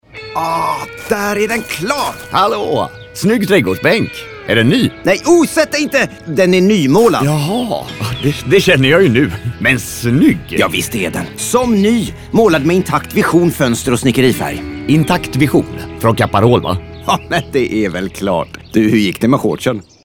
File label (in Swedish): radioreklam; caparol; banken